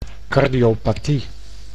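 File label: "cardiopathie", Dutch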